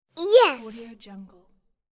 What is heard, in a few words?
child yelling triumphant yes